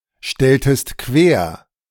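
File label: "stelltest quer", German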